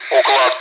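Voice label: Speech Sound Russian Common air traffic control Callsign; ATC; Uklad; DCS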